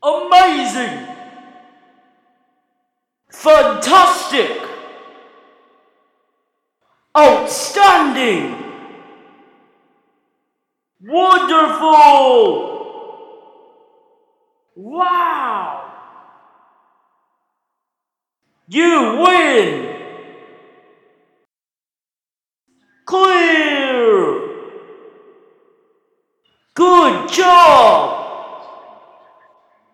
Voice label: Announcer Positive

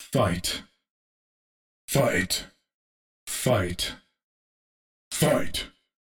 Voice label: Fight Fight Announcer Multiple Takes Wet

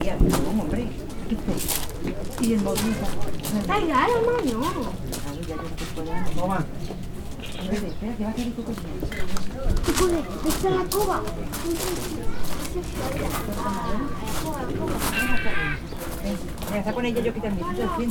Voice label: Talking Kids People On Gravels

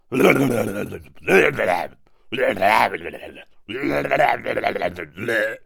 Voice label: Cartoon Quarreling Bad Guy

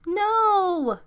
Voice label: "No" With Attitude

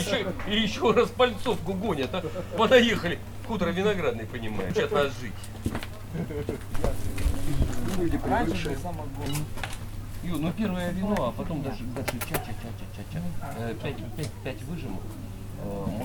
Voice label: Russian Speech

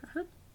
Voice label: Uh Huh Little Cartoon Female

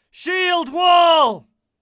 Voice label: Shieldwall British Warrior

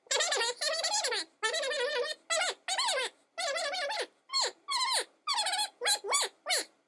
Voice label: Chipmunk Telephone Gibberish

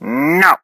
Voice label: Harsh Person Saying No

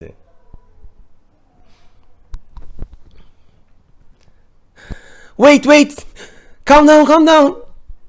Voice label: Man Screaming Wait Wait Calm Down

